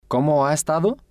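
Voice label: "como Ha Estado", spanish, male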